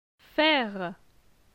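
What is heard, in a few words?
"Faire", French; phonetique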